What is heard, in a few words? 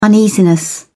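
"uneasiness", British English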